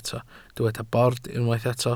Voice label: "bord", Welsh